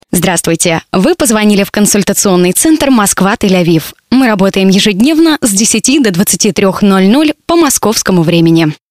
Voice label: ivr